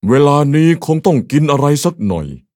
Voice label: level up, th; cookie run